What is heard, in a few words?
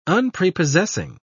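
"unprepossessing", British English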